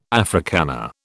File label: "Africana", British